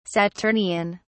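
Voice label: "saturnian", English, British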